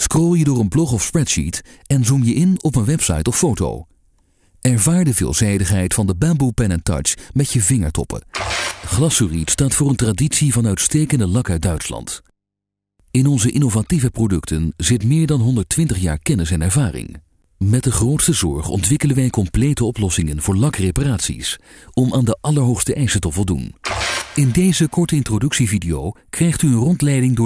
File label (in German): niederlandische sprecher industriefilme e-learning